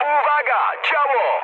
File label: damaged aa mood high, speech pol; War Thunder